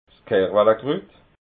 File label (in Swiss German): "kerwalakrut", alsace